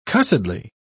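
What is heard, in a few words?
"cussedly", British English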